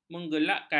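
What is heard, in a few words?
"menggelakkan", Malay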